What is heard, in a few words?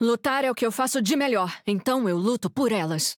pt-br; akali